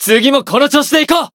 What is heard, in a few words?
win, jp